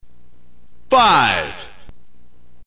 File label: narrator